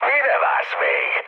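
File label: attack position, mood med, Hungarian; speech; War Thunder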